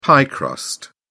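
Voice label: "pie crust", British English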